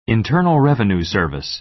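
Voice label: "internal revenue service", American English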